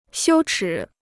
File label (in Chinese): "羞耻", Chinese